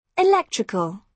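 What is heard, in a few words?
"electrical", British English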